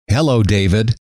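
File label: hello, male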